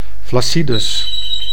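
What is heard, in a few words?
"flaccidus", Dutch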